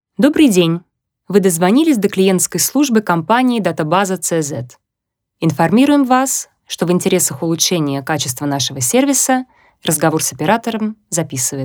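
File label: Russian, female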